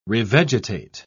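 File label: "revegetate", British English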